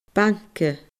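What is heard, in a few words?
"banca", Scottish Gaelic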